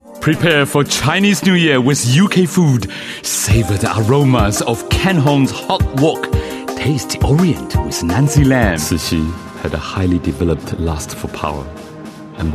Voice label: Mandarin Chinese English

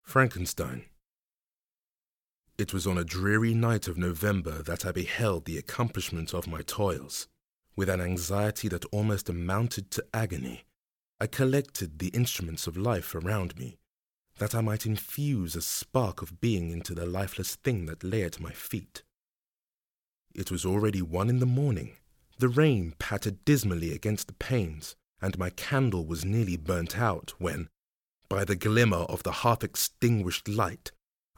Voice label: Literary Corporate Narrative

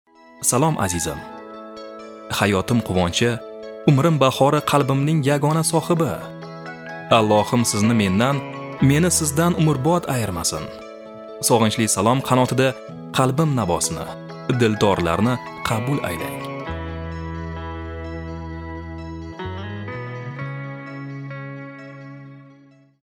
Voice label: Uzbek General

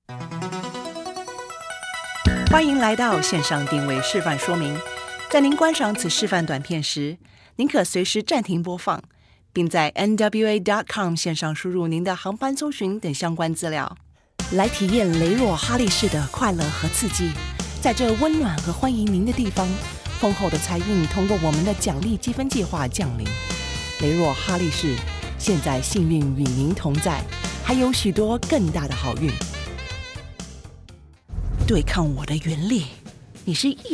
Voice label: Chinese Mandarin Taiwan

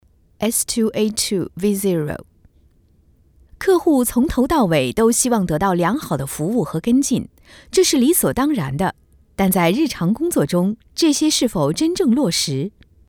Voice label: Corporate Training

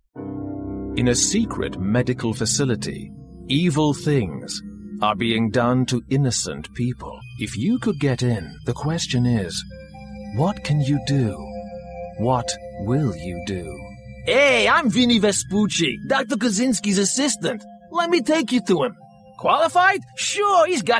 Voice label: English UK Gaming Voice

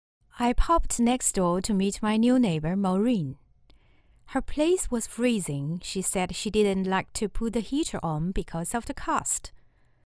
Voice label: English Accent

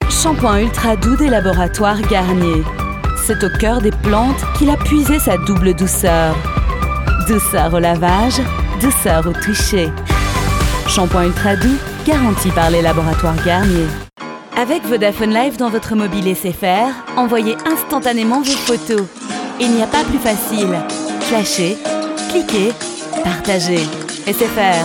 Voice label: French Commercials

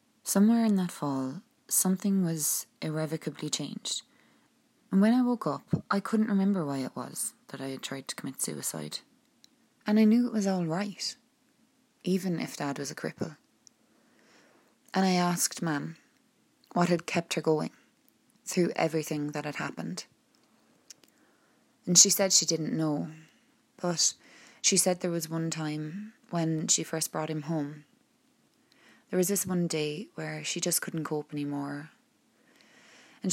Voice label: EN Narrative Irish

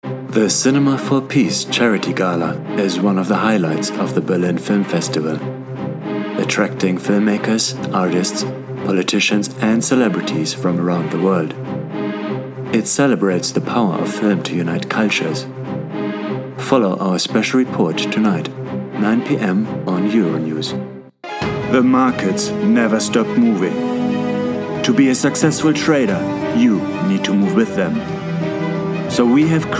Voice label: English

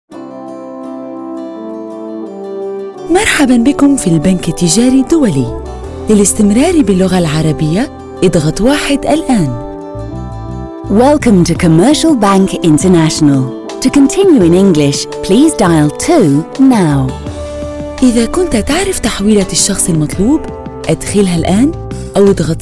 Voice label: Arabic Corporate classical

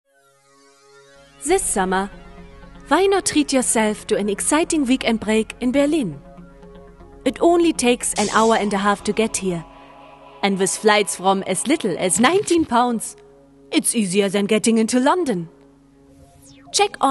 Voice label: German Accented English

